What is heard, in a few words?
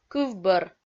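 "кывбӧр", Komi, female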